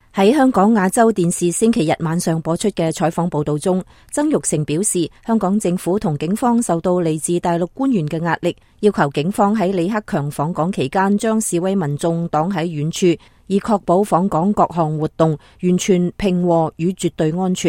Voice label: cantonese